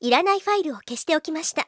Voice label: trash, anime girls; Windows; Bing Japan; Nanae